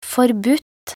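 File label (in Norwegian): "forbudt", Norwegian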